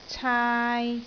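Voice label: "caai3", Cantonese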